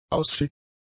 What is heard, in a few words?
"ausschiffend", German; adjectives